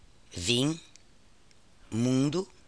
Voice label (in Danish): portugisisk vim mundo; [vĩ ˈmũdʊ]